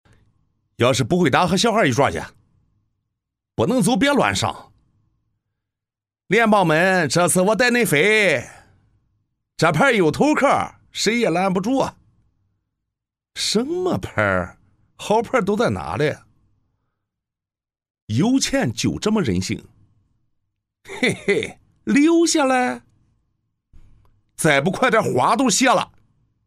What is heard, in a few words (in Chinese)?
男 方言 山东临沂; 扑克; 大气激情 素人自然